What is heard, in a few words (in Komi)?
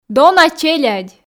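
дона челядь, Komi, female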